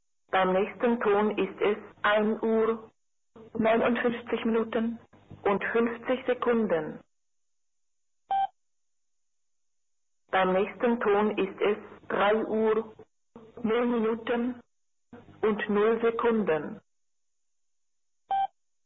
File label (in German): zeitansage; osmocom-analog